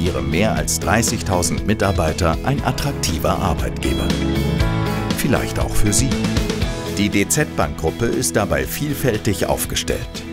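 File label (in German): Werbung, deutsch Männer; sprecher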